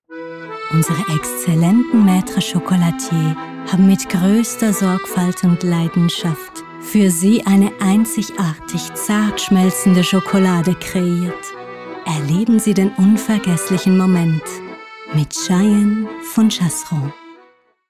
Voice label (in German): sprecherin werbung sinnlich